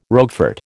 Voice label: "Roquefort", American